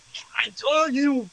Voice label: Voice Acting, es